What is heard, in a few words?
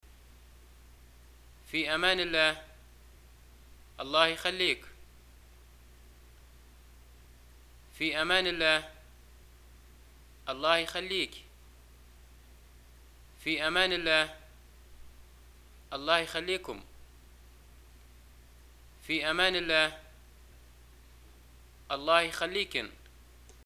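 Libyan greeting farewell, arabic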